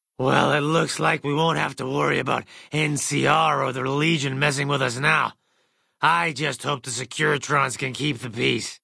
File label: male generic ghoul greeting; Fnv